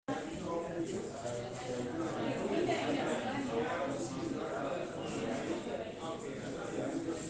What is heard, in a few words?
Group Talk Of A Crowd